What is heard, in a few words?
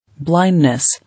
"Blindness", English